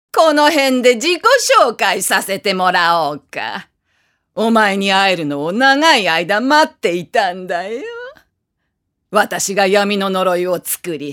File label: female voice; セリフ